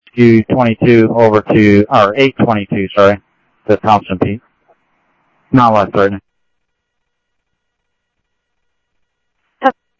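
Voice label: Lakes Sheriff Lake Patrol, scanner audio trunk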